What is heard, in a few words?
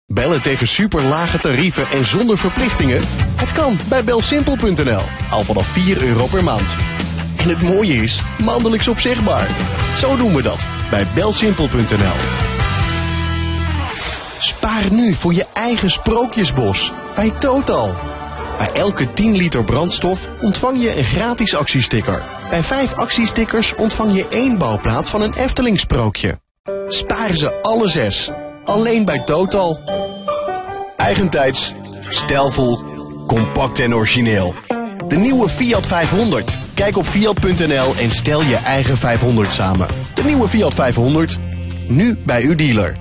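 Dutch, male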